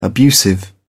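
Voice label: "abusive", English, British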